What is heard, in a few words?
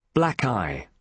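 "black eye", British English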